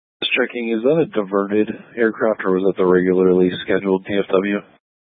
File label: Tactical Incidents, scanner